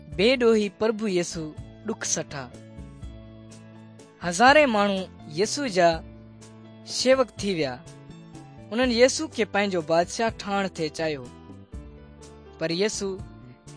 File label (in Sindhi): good news sindhi dukslinu, drama; sindhi pakistan nt drama